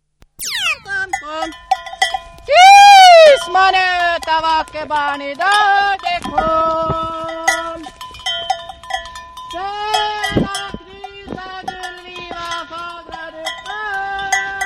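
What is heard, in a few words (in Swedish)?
Dalby Värmland, dialekter; Svenska sagor; Folkminnen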